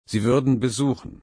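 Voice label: "besuchen", German, slow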